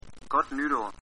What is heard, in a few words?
new year, dk; godt nytår; Danish